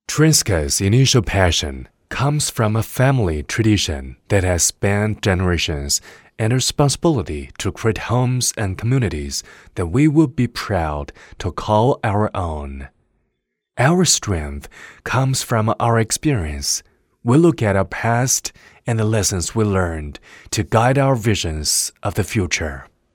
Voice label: 男 英文 专题 低沉磁性

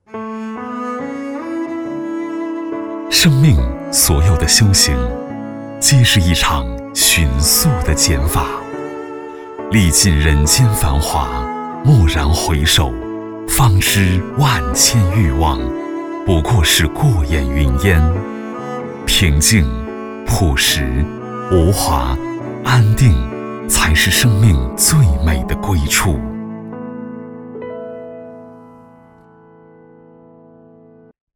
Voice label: 男 独白; 生命所有的修行

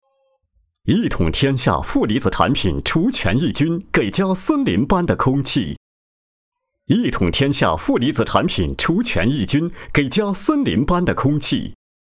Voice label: 男 品牌广告; 负离子

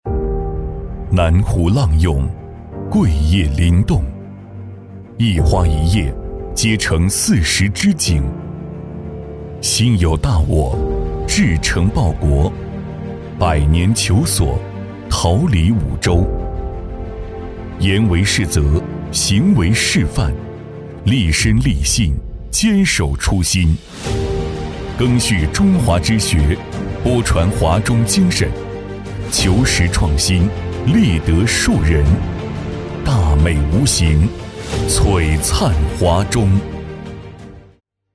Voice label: 男 走心; 华中师范

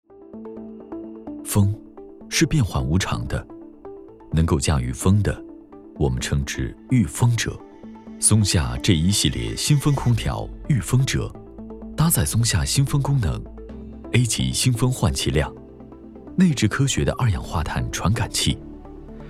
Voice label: 男 广告 电器广告

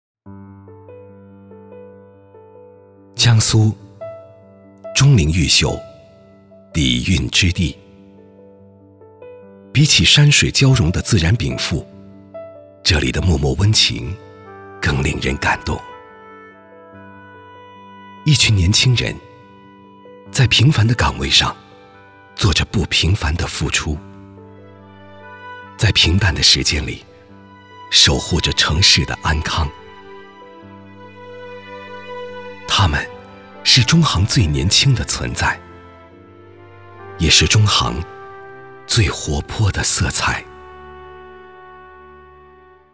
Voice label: 男 旁白 走心品质; 中国银行

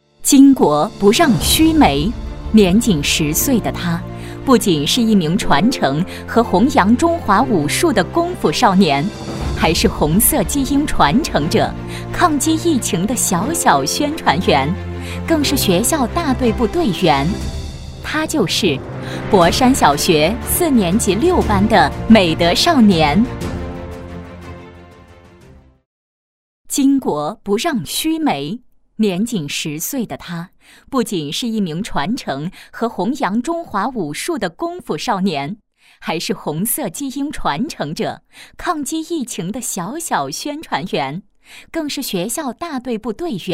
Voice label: 女 人物 专题 大气; 巾帼不让须眉

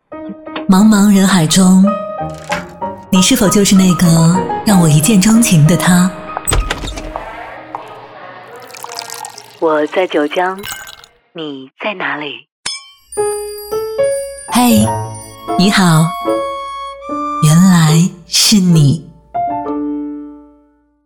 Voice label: 女 电台片花; 缘来是你

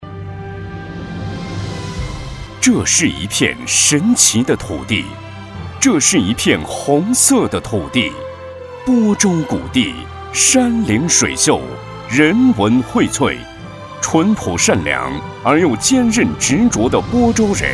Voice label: 男-专题-教育自信昂扬

